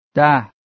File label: "da" Farsi